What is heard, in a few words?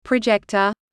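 "projector", English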